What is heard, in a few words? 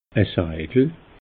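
"seidel", Alsatian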